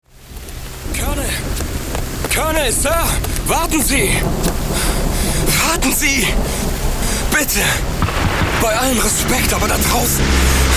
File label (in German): Synchro Hoerspiel Gaming aufgebracht verzweifelt; LOFT VOICES